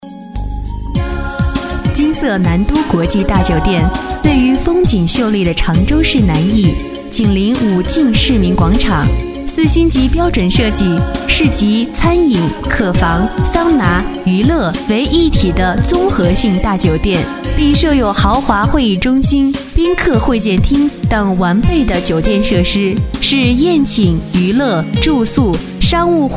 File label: MANDARIN FEMALE; nanduguojiajiudian